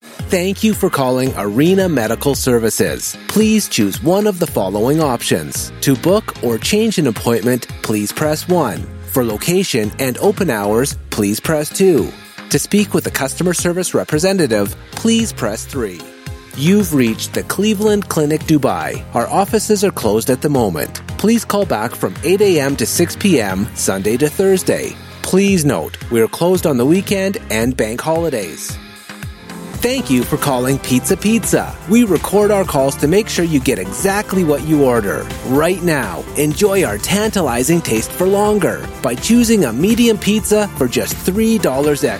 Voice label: US English IVR on hold, male